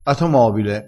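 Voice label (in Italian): "atomobile", vocabolario dialettale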